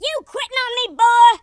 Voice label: kamikaze, Drill Sergeant; speech